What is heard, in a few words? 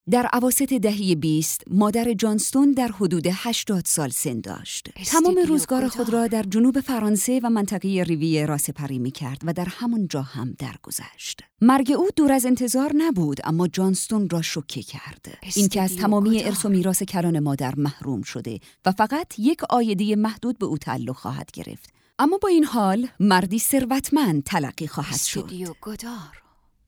documentary